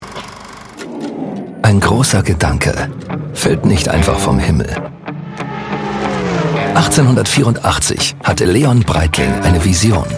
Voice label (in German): DE Imagefilm; Breitling